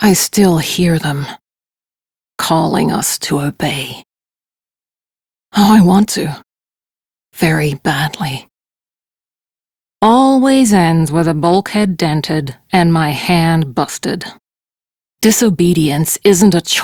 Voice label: Crew Idle, Steel Meridian Female; Dialog; en; Warframe; RailjackCrew